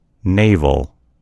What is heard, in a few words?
"navel", en US; gcompris